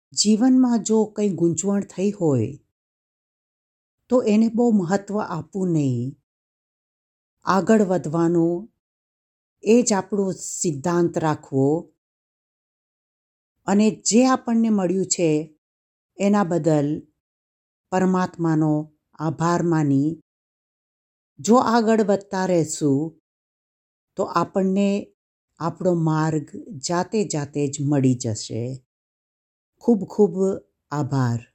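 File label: GU General, Gujarati; GoLocalise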